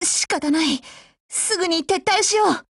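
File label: break, voice, Japanese; GF Alarm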